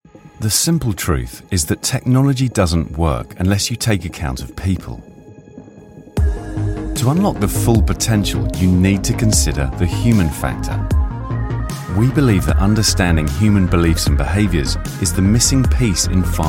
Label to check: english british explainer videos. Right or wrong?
Right